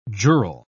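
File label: "jural", British English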